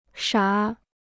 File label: "傻", Chinese